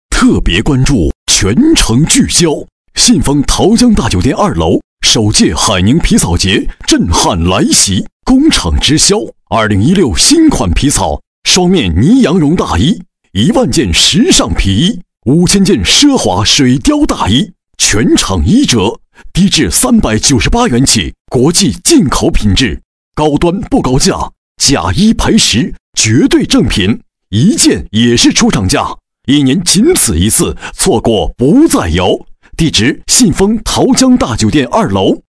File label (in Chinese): 男 促销; 皮草促销